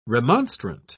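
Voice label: "remonstrant", English, British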